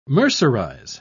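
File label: "mercerize", British English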